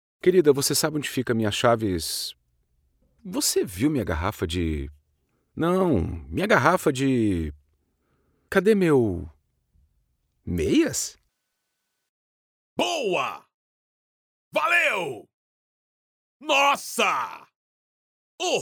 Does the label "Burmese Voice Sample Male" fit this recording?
No